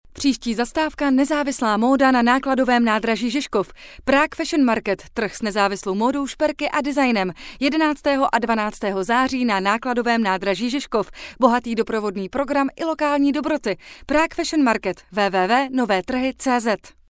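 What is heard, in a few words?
czech female commercial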